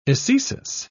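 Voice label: "ecesis", British English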